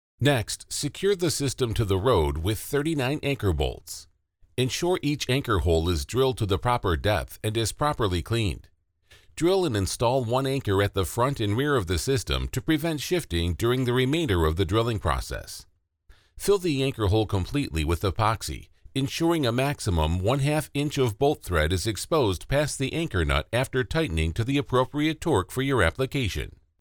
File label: elearning, commercial voiceover